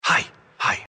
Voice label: hi hi, male; Voice; expletives

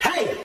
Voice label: "hey", voice

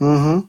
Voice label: "mmm hmm", male; Voice

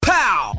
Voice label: "pow", Voice

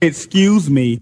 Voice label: "excuse me", Voice; expletives

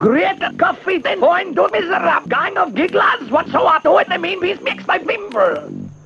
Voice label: gibbrish, voice; grit da cuffy to goiine to mizzera guyno gizlob wutso iz ix mimble

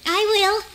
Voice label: i will, girl; Voice

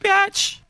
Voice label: "bitch", expletives

